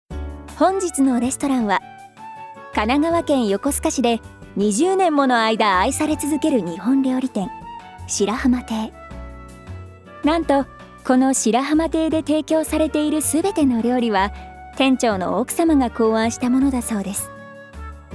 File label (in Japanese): ナレーション